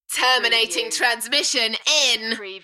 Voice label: UK female, Terminating transmission in 1, Enthusiastic; beanstalk audio